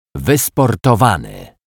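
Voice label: "wysportanowy", Polish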